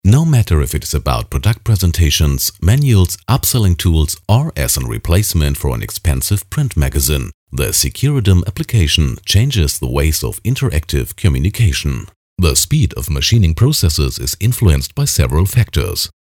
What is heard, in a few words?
demo english; Hamburg, Kiel; Muttersprache Deutsch